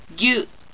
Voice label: "gyu", Japanese